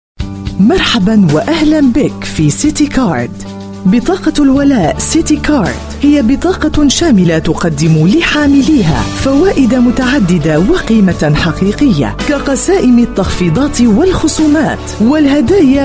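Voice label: arabic voice over female interactive voice response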